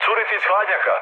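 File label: Serbian damaged water mood med; War Thunder; speech srb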